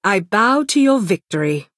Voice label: female concede; Dunmer

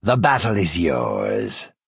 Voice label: Argonian male concede

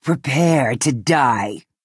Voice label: Orc female taunt

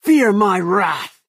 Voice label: Imperial male taunt

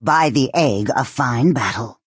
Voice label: female good game; Argonian

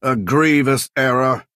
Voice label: male "oops"; Dunmer

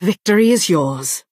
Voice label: Bosmer female concede

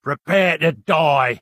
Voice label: Bosmer male taunt

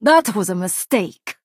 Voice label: Nord female oops

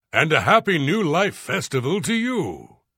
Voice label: Holiday New Life Greeting Nord Male; Unused

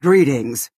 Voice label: Orc female hello

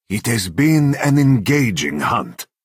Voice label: Khajiit male good game

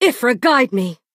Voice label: Bosmer female implore

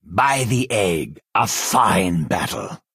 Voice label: Argonian male good game

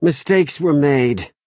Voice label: male oops; Imperial

